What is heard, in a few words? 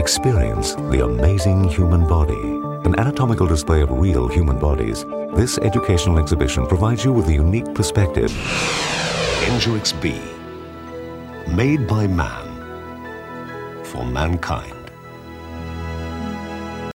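Medical, voiceover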